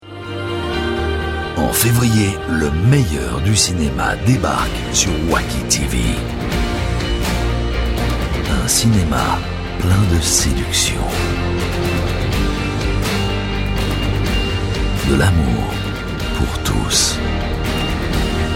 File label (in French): promo; Wuaki TV